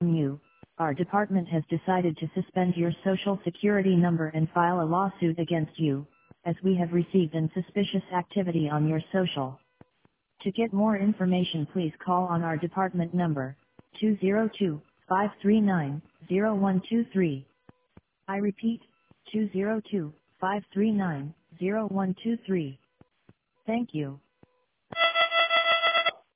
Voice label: Fraud Voicemail